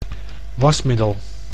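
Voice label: "wasmiddel", Dutch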